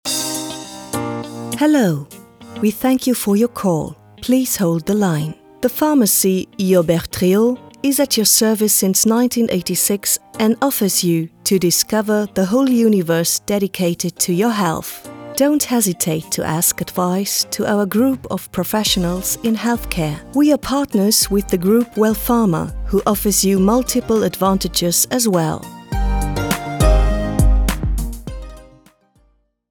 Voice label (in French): Anglais